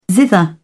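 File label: "zither", British English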